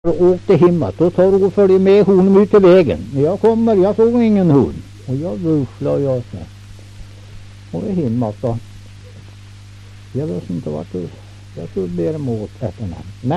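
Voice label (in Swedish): Kräklinge Närke, dialekter; Kräklinge NRK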